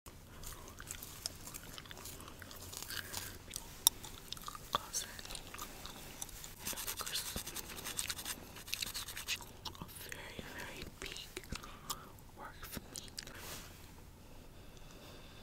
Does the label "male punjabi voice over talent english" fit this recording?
No